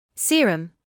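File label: "serum", British English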